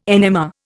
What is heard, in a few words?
"NMR", Indian